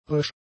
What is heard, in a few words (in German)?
"rösch", German; adjectives